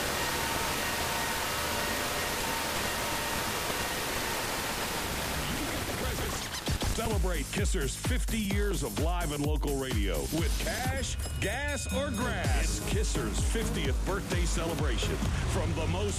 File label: brand call ES; KISR